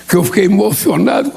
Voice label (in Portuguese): emocionado; lula